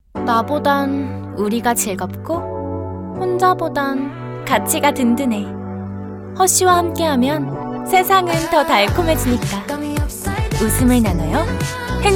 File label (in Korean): 따뜻하고 명랑한 톤; 허쉬